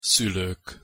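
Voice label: "szulok", Hungarian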